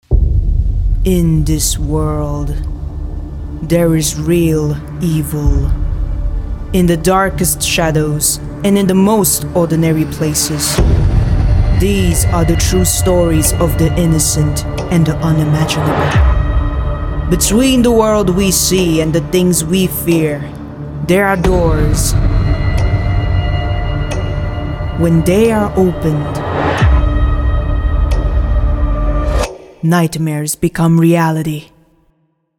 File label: TRAILER